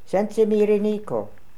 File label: "Sensimiriniko", pronounciations; Fourth Way; Beelzebub